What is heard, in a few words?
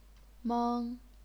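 "meng", first tone, chinese pinyin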